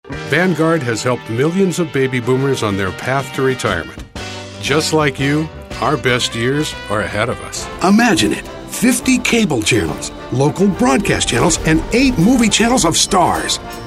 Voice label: english us m commercial, voice demos